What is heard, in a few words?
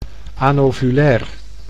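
"anovulair", Dutch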